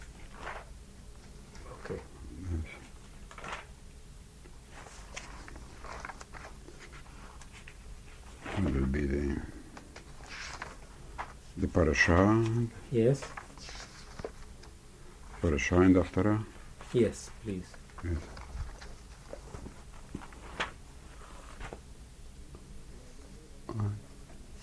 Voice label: conversation; Succot